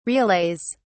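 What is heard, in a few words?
"relays", British English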